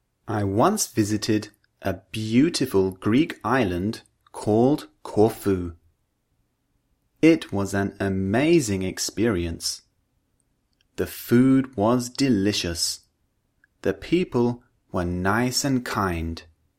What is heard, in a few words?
Vowel Sounds, British English; Udemy - Perfect English Pronunciation British English